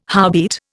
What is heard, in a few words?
"howbeit", Indian